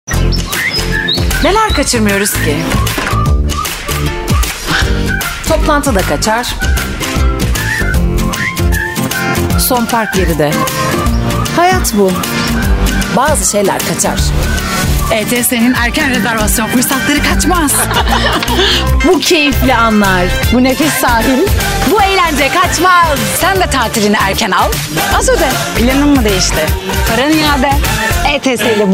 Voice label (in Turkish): turkce kadin